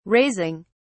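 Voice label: "raising", British English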